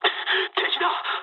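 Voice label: Japanese enemy detected mood med; War Thunder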